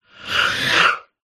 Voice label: horror voice ghostly says theyre here